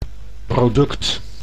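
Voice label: "product", Dutch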